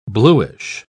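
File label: "blueish", British English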